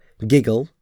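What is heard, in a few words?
"giggle", English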